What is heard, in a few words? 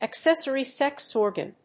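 "accessory sex organ", English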